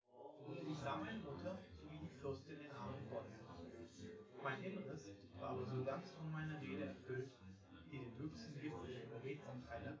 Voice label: male synth conv